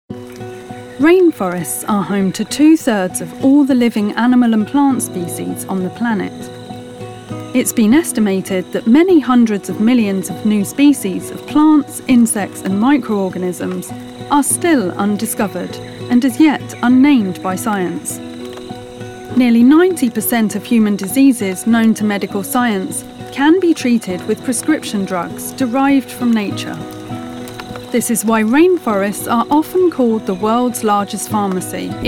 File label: Narrative